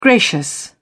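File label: "gracious", English, British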